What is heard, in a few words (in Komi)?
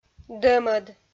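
"дӧмӧд", Komi, female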